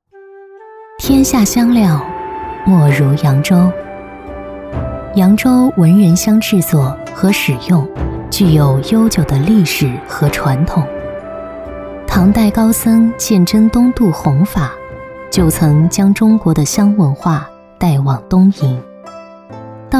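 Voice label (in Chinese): 女国大音-【非遗-纪录片】古风; 天下香料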